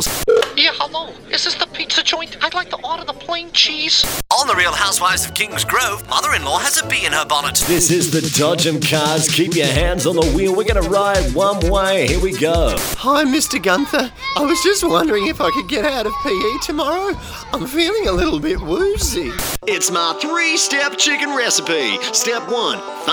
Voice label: Character

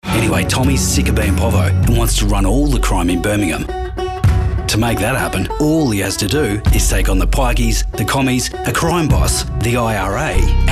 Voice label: promo; EM Voices; ABC

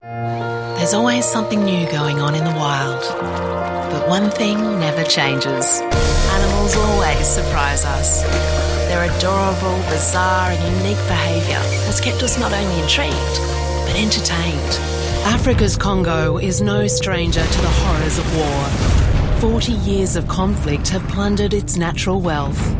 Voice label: promos; EM Voices

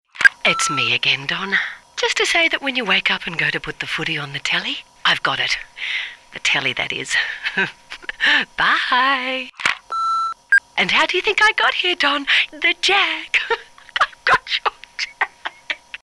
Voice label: Character Voicemail

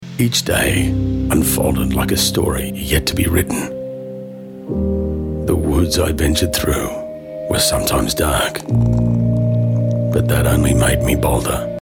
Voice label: story

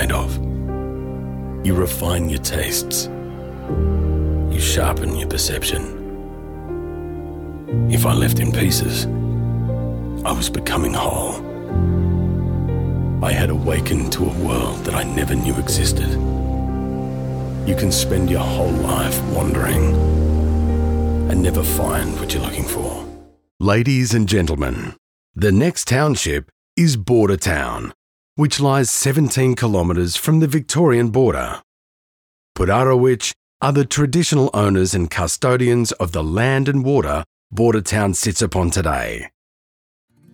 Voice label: Narration

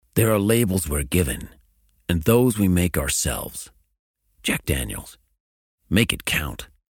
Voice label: American Accent; Jack Daniels

